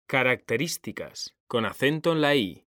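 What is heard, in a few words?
"características", Spanish, male; mekanta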